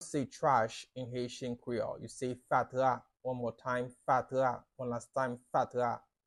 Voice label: Trash in Haitian Creole Fatra pronunciation by a Haitian Creole teacher